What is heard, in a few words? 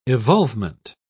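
"evolvement", British English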